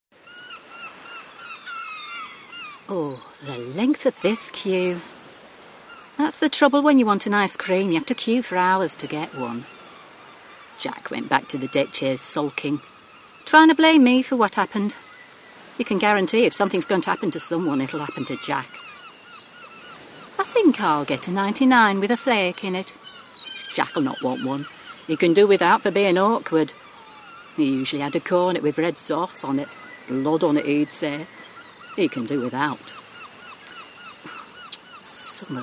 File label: Voiceover